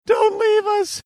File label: saying dont leave us high pitched